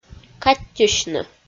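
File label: "каттьысьны", Komi, female; kpv audio dict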